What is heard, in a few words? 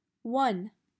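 "One", English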